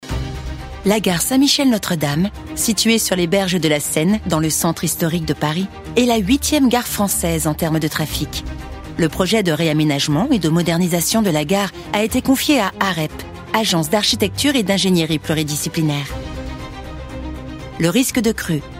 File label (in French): voix narrative posee; Film Web; Arep; Notre-Dame